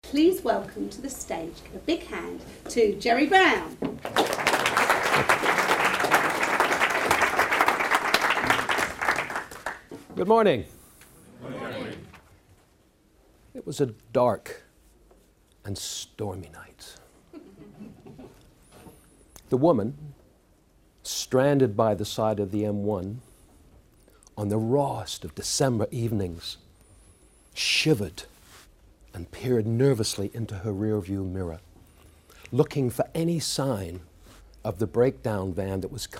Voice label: psa